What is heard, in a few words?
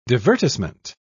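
"divertissement", British English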